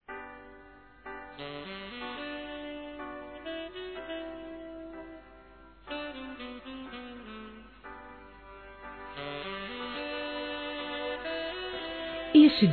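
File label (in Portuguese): Padrinho, Feminino; Aniversários; Aniv Familiar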